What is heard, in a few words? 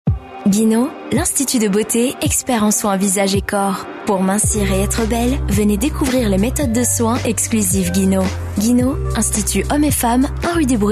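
French, female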